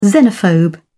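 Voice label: "xenophobe", British English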